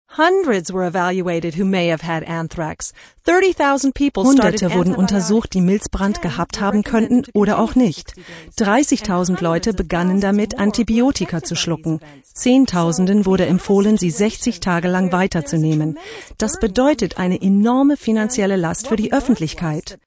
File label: VoiceOver